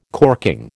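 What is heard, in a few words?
"corking", American English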